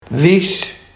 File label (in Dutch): "wies", Barghs